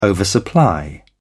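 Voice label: "oversupply", English, British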